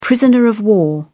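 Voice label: "prisoner of war", British English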